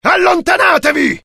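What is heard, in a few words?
fear grenade, IT; mohaa; dialogue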